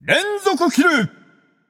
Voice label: alert notify kill streak, japanese; caster